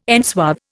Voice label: "enswathe", Indian